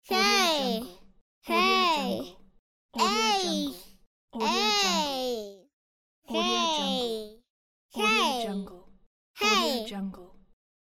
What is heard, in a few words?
little girl hey shout pack